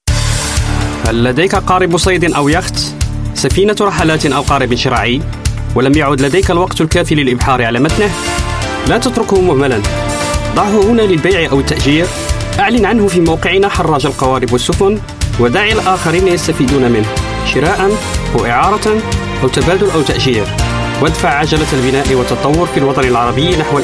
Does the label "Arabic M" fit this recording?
Yes